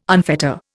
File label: "unfetter", Indian